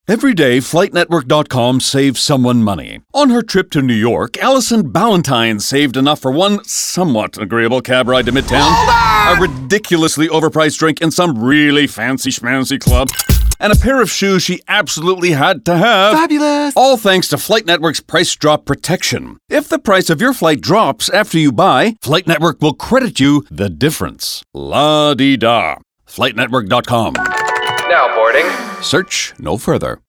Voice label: radio; price drop protection; flight network; nyc